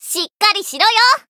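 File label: heal, Japanese; may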